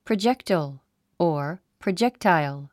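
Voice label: "projectile", English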